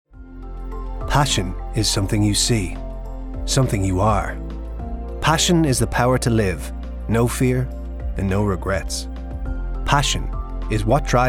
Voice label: Irish voice over artist; Commercial Reel